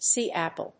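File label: "sea apple", English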